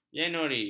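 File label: "januari", Malay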